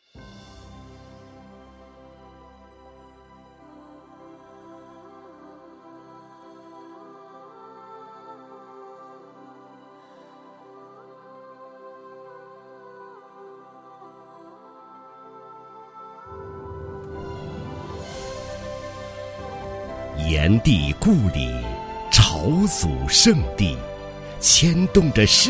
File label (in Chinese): 男 专题 抒情大气, 国语男声配音; 炎帝故里